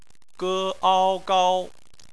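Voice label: "gao" bopomofo, Chinese; BPMF spelling; phonetics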